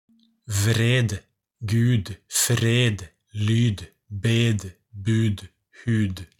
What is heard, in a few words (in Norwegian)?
konsonanten d uttalt lang vokal